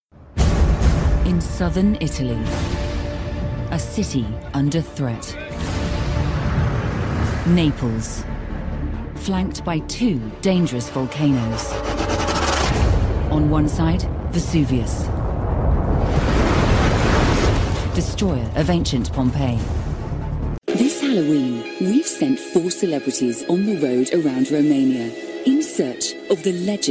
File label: Documentary; Documentary Reel